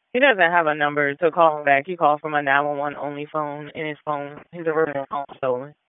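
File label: Police Southeast District Dispatch; Raleigh; wake; p25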